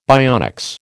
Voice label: "bionics", American

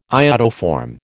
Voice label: "iodoform", American

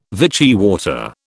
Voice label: "Vichy water", British

